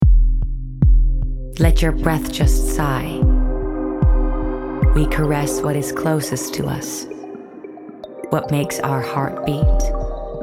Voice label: ENG